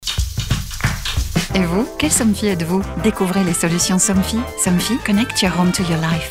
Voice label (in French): pub voix off; somfy